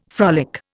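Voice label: "frolic", Indian English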